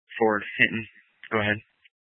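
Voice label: Police Dispatch, Scanner audio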